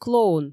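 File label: "клоун", Komi, female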